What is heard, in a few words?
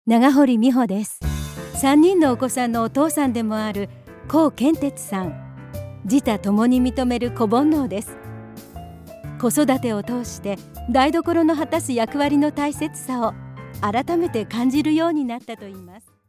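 female documentary, English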